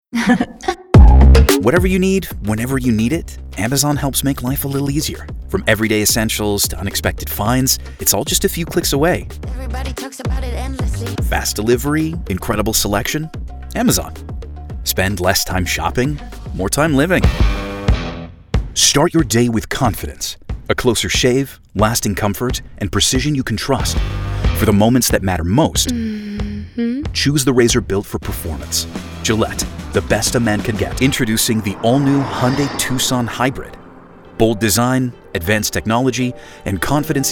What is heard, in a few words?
Commercial